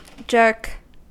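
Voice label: "jerk", American English; pronunciations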